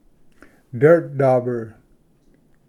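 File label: "dirt dauber", English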